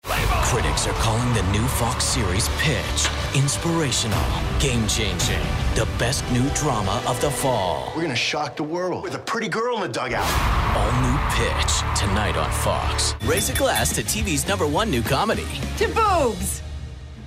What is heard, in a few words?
Promo